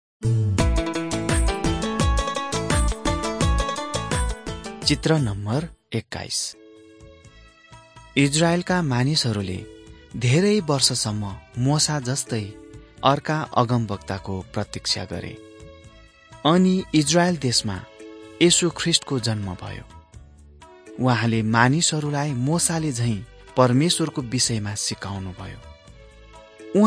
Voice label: mighty men of god nepali

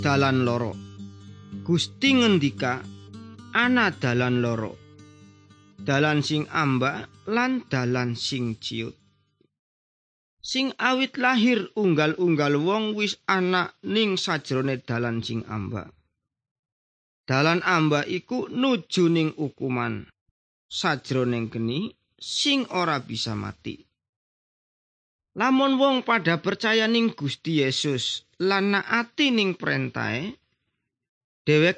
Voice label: good news jawa indramayu, javanese bible

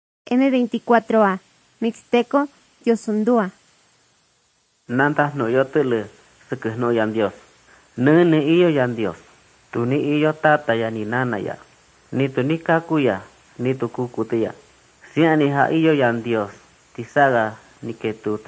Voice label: mixtec diagnostic spanish mexico

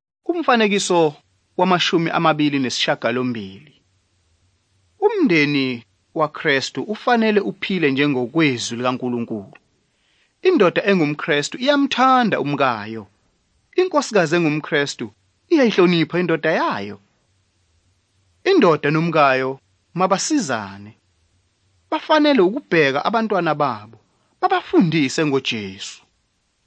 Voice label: zulu bible; good news

